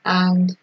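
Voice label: "and", Nigerian Pidgin, female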